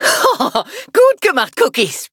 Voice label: victory, de; cookie run

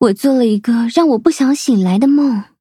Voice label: upgrade, zh-hant

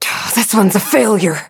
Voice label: hit, en; cookierunwiki

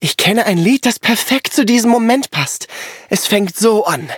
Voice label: loading, de; cookie run

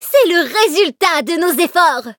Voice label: victory fr; cookie run

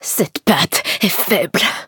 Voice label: defeat, fr; cookie run

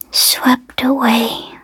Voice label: defeat, en; Cookie Run

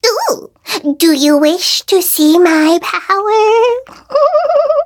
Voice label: touch, en; cookie run

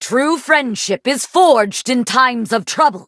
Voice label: loading, en

